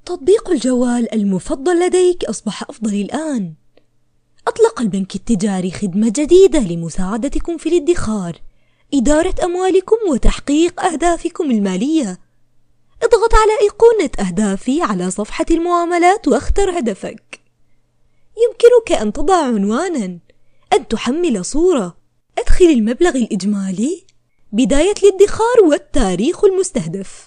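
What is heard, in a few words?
Arabic, female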